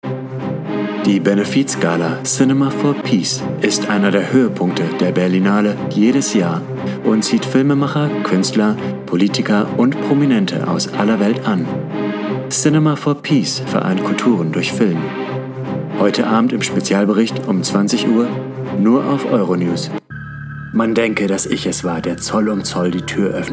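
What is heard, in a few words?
German Narrative; GoLocalise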